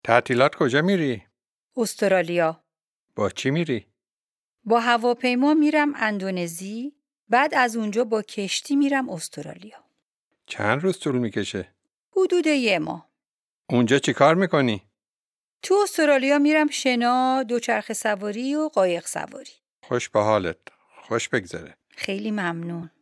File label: Dialogue lesson Farsi